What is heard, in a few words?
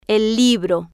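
"el libro", Spanish, female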